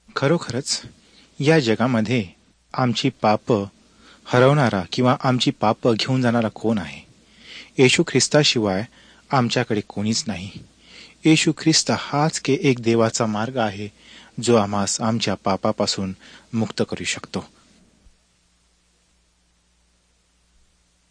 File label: words of life marathi, drama; world bible translation center nt drama